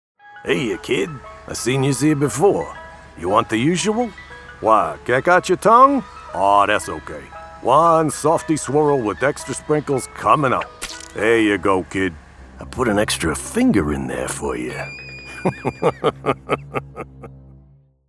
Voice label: Animation; Chad